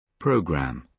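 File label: "programme", British English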